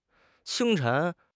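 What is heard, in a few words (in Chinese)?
"清晨", Chinese; HSK6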